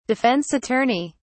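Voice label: "defense attorney", British English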